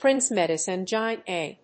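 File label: "Prinzmetals Angina", English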